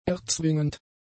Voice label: "erzwingend", German; adjectives

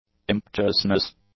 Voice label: "impetuousness", English; nouns

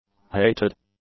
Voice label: "hated", English; adjectives

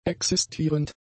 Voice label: "existierend", German; adjectives

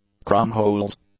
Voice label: "krummholz", English

